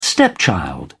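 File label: "stepchild", British English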